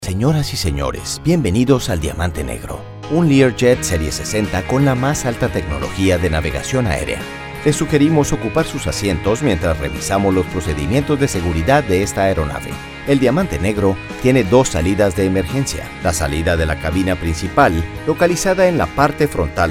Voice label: spanish latin american e-learning